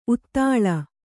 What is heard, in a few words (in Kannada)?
"ಊದಲು", Kannada